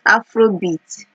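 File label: "afrobeat", Nigerian Pidgin, female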